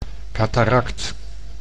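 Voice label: "cataract", Dutch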